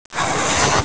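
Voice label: "sports", Mandarin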